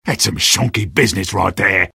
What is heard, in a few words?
jeers; sniper; source engine; tf